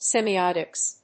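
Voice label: "semiotics", English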